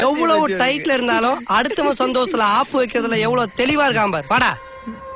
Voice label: Tamil Comedy

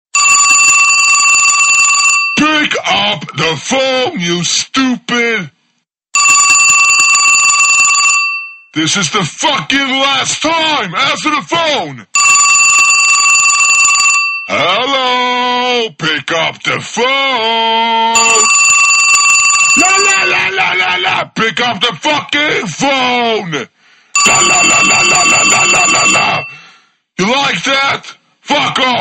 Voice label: English Call

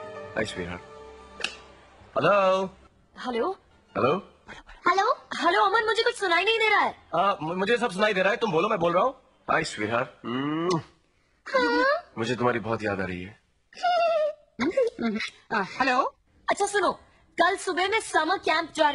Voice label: phonecall; KKHH

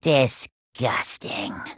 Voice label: Disgusting

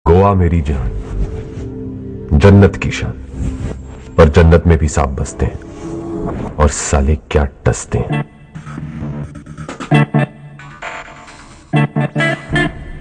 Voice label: dialog

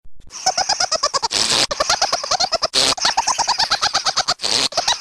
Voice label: Funny Alien

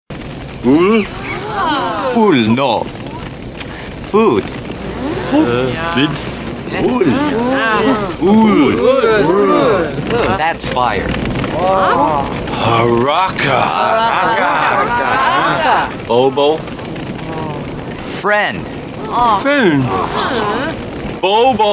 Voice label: Caveman Talk

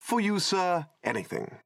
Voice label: Confirm male; Jarvis

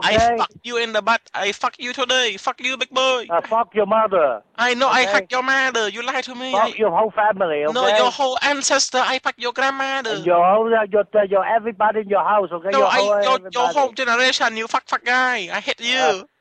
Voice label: Asian Prank Call

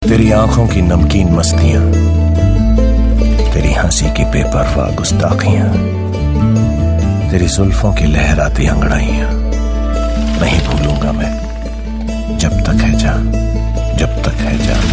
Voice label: Dialog

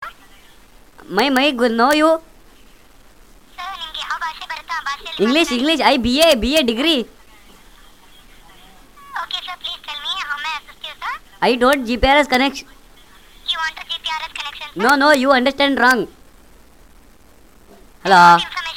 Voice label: Comedy; Airtel

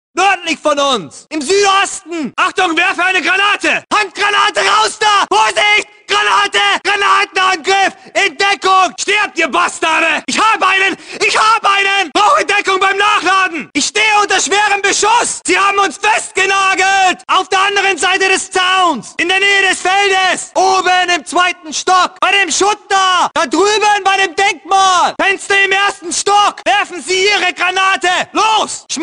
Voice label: Wehrmacht Voices

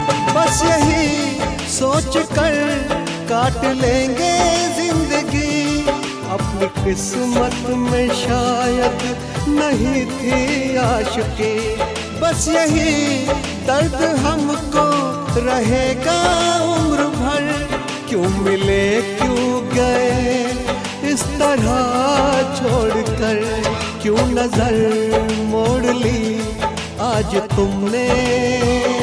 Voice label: Love Shayari

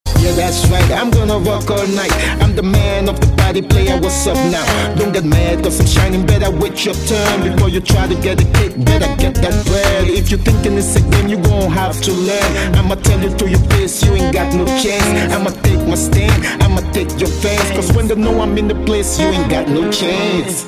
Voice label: Rap

